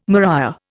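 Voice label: "maria", Indian English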